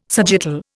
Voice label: "sagittal", Indian

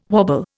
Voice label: "wobble", Indian

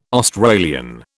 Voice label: "Australian", British

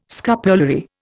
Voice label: "scapulary", Indian

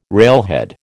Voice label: "railhead", American

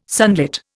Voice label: "sunlit", Indian

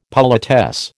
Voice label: "politesse", American

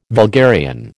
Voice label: "vulgarian", American

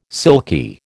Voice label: "silky", American

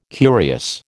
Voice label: "curious", American

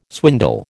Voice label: "swindle", American

